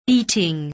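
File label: "beating", English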